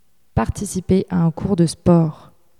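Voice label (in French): "participer à un cours de sport", French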